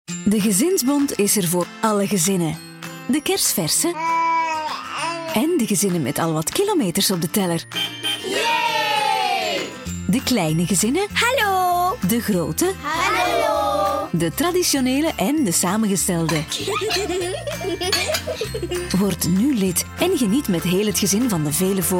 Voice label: flemish commercial